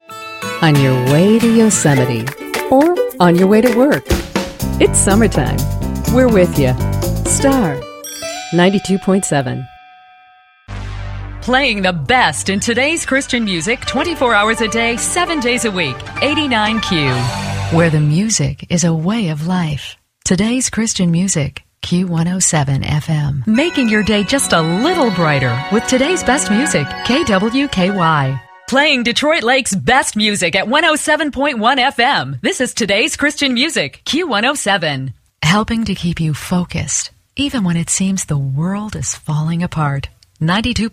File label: Imaging